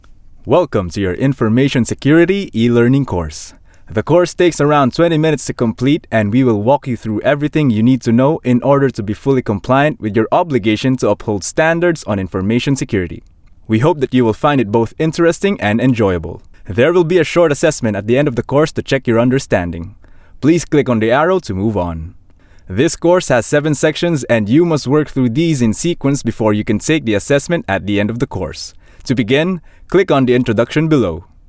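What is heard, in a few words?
PH English Narration, Philippine English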